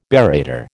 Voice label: "barrator", American